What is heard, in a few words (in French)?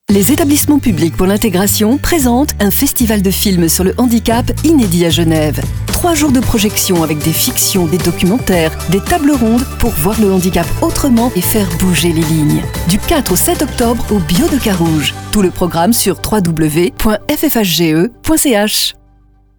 Pub Radio